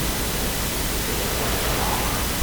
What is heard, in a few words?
Male voice